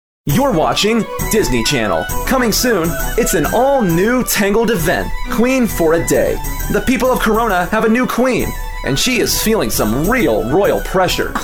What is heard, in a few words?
promo